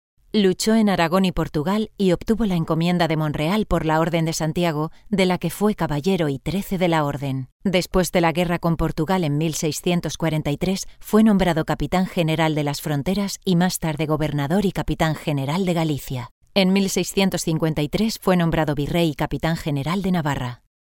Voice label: locutora spanish voiceover